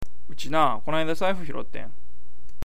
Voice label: uchi, awaji ben

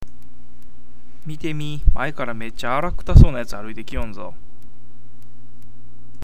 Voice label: arakuta, awaji ben

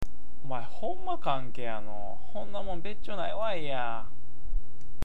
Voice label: "kanke", awaji ben

